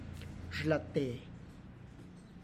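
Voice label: tlacochahuaya, Zapotec; zhlat de; furnace; (Spanish) bracero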